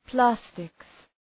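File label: "plastics", English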